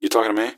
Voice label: answer, gasmask; scmod